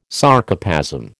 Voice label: "Sarcoplasm", American